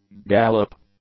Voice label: "gallop", English; nouns